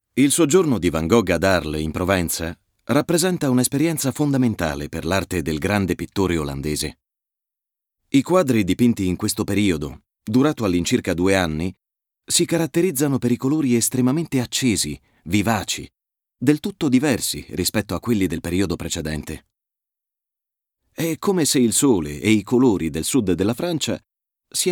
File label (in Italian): Documentario, Italian, male; documentary